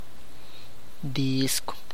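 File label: Tlacochahuaya Zapotec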